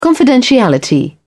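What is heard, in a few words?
"confidentiality", British English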